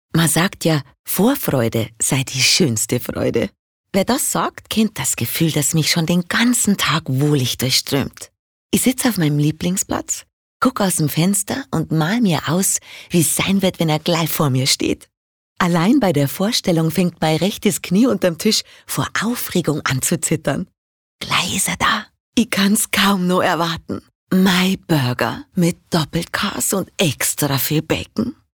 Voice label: Dialekt Bayerisch

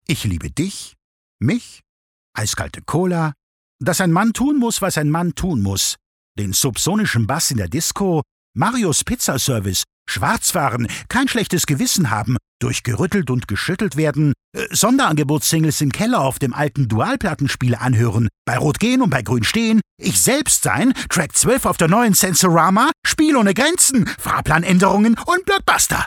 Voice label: Schauspiel Variabel

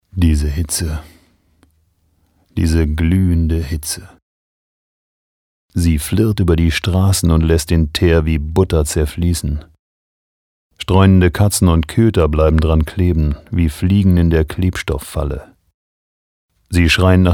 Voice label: Schauspiel Sanft

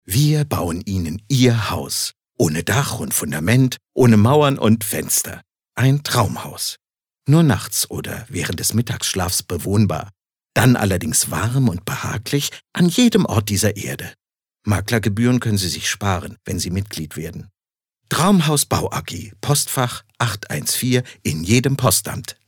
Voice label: Werbung Freundlich

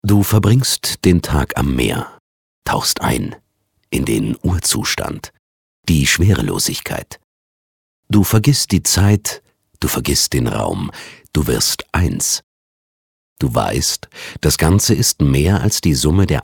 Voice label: Schauspiel, Neutral

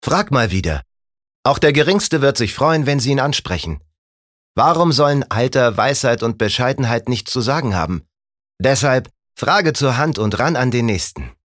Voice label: Werbung Dynamisch

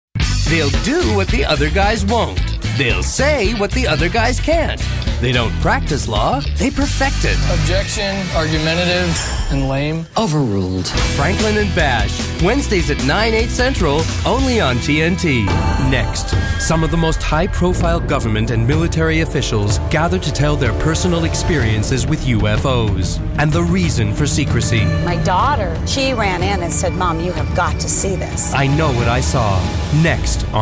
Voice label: Eng US Werbung Dynamisch

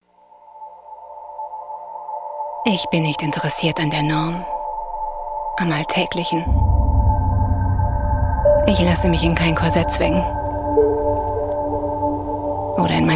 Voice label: Dynamisch

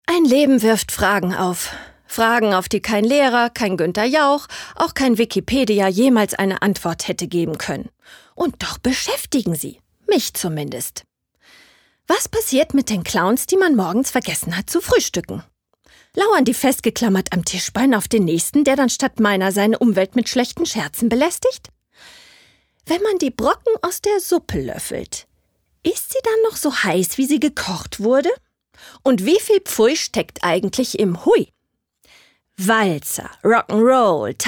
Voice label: Schauspiel Neutral

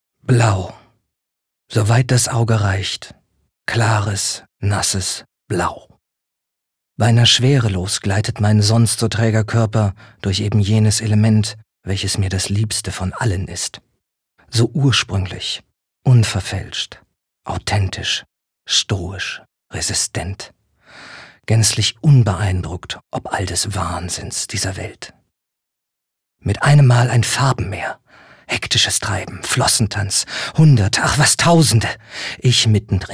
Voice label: Melancholisch

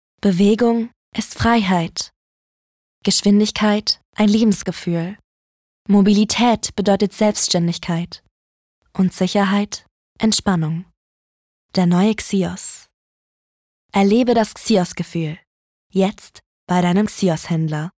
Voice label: Sanft; Xios

